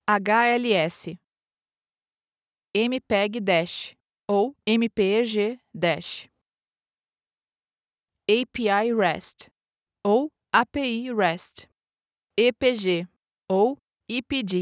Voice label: pronunciation check voice interaction female brazilian portuguese